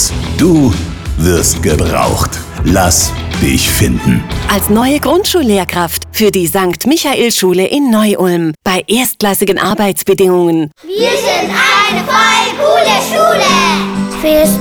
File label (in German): Radio; Lehrerwerbung; St. Michael; Radio7